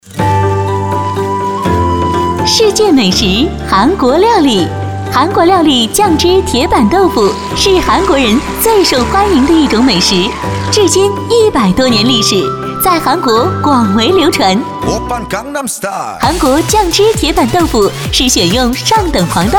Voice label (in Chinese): 女 促销 韩国豆腐